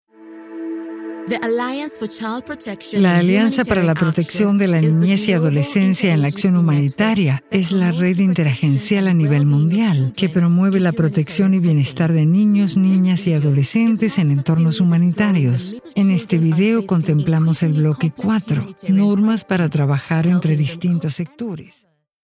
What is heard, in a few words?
female latam argent comercial; ideasonora